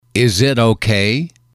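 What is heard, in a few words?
"is it okay", English, male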